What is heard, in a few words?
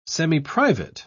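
"semiprivate", English, British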